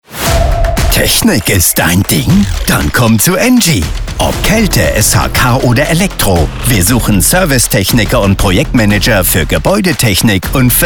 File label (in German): Musik, radio; ENGIE; recruiting im radio; stellenanzeige im radio; jobkampagne